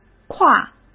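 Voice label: "跨", Mandarin Chinese; hsk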